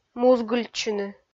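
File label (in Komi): "музгыльтчыны", Komi, female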